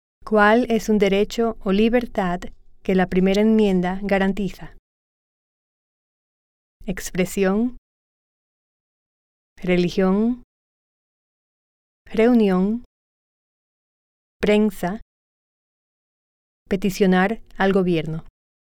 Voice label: Spanish